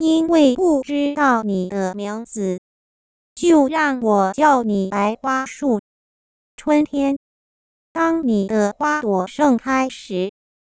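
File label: Synthetic Speech, Mandarin singing voice synthesis, Using linear time mapping and PSOLA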